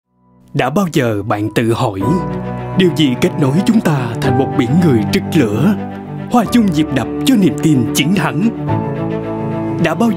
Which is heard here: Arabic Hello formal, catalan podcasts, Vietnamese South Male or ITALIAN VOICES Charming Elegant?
Vietnamese South Male